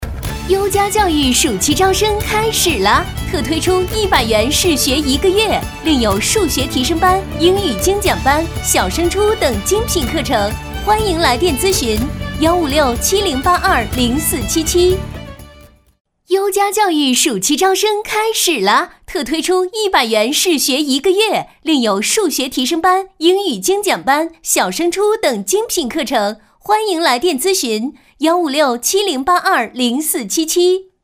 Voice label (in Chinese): 女 优佳教育（激情）